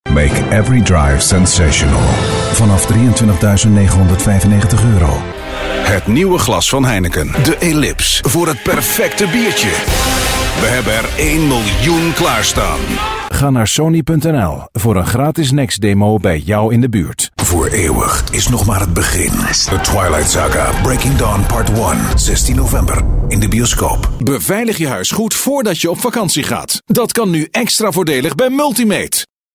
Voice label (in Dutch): commercials